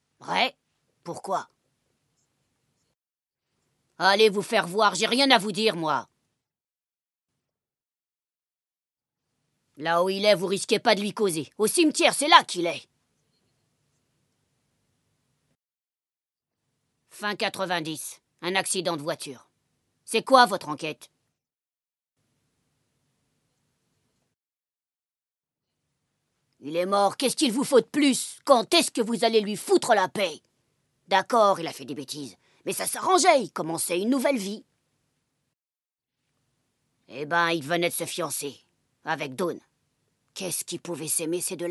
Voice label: Doublage femme revêche

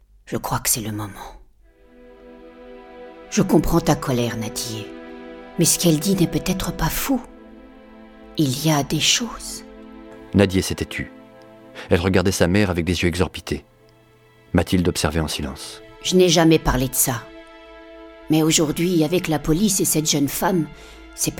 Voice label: Livre Audio; Sous le signe de l'ogre

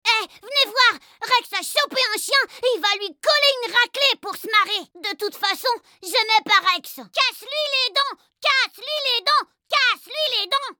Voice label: jeux video petit garcon 8 ans

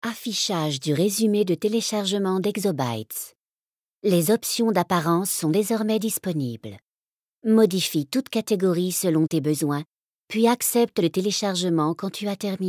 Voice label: Jeux Video Voix Ordinateur Posee

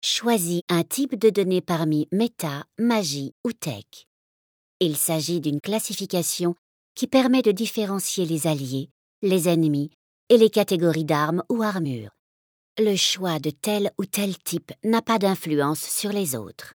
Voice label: Jeux Video Tutoriel Calme Didactique

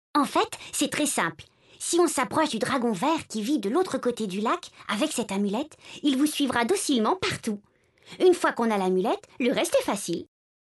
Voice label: Jeune fille

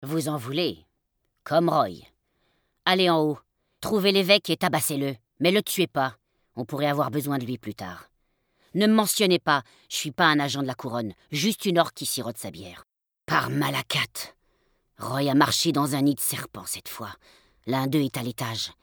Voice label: Jeux video Femme Orque

